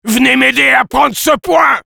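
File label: help me capture, French; Sniper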